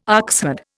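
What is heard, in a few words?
"oxherd", Indian English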